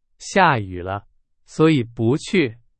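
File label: Chinese, example sentence; hsk2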